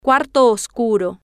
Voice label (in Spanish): "cuarto Oscuro", Spanish